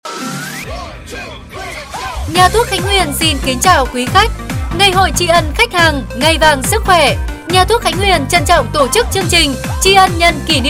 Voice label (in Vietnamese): Thu Am Quang Cao; Nha thuoc Khanh Huyen